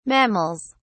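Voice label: "mammals", English, uk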